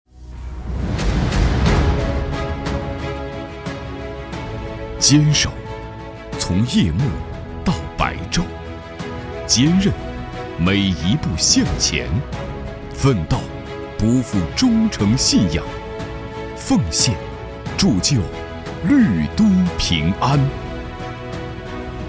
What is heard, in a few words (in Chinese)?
男 专题 警察形象片